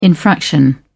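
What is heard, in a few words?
"infraction", British English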